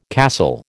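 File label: "castle", American English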